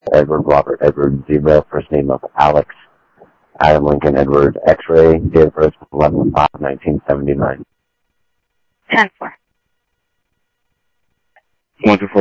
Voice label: Sheriff, scanner audio

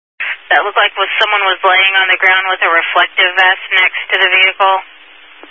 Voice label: Highway Patrol, Phoenix Metro East Area, scanner

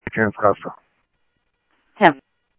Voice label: Police, scanner audio

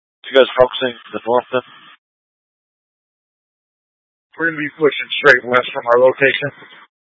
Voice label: Mutual Aid-Intersystem Interop B, scanner

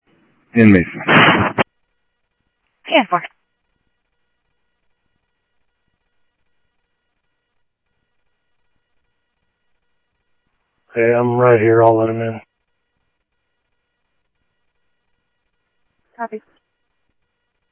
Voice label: Central Sheriff Central, scanner audio trunk

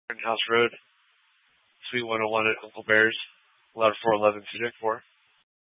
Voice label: FD Dpts VHF 156.240 Patch

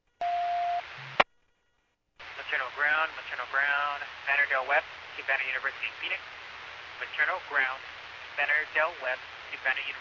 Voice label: Medevac, scanner; AirEvac PhxT

